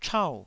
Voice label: "chau4", taiwanese

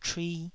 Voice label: "chui2", taiwanese

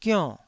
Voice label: "gionn4", Taiwanese syllable

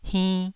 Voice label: "hinn2", taiwanese syllable

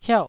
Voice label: "hiauh4", Taiwanese syllable

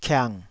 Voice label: "kiang3", taiwanese syllable

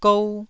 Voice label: "gou1", Taiwanese syllable

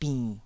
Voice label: "binn3", taiwanese

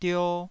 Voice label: "dio2", Taiwanese syllable

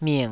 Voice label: "ming3", Taiwanese

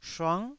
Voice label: "shuang9", taiwanese

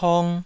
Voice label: "pong2", taiwanese

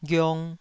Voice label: "ghiong2", Taiwanese syllable

